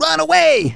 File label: "RUN AWAY", Speech, Soul Man